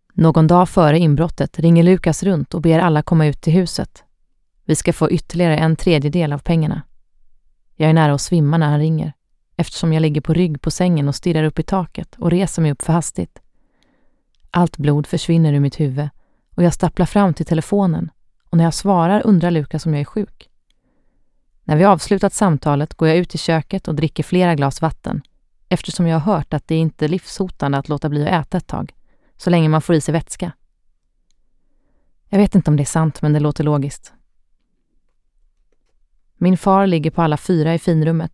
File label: SWE Audiobook f